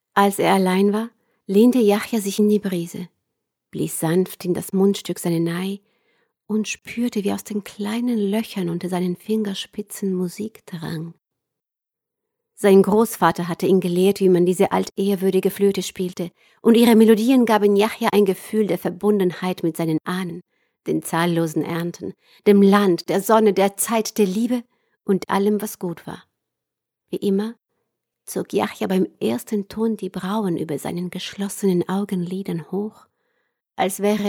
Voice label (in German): Hörbuch deutsch